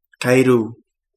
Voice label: toad, Kapingamarangi